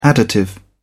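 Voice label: "additive", English, British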